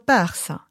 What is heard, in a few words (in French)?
"persa", Moita Verde (nord)